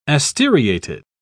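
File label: "asteriated", British English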